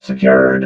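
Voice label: "secured", robot voice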